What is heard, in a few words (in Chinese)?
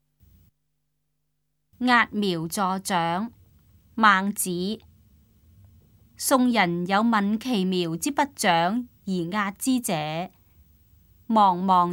cantonese; 揠苗助長; 粵語